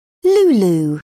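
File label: "lulu", British English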